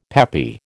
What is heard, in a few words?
"peppy", American